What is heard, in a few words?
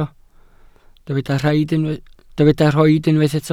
"rhoid", welsh